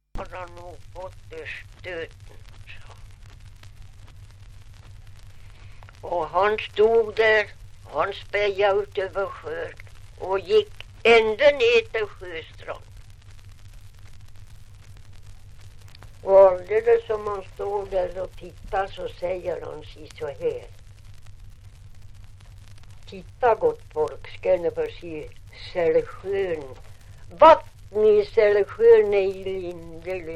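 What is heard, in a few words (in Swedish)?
Ovansjö Gästrikland, dialekter; Folkminnen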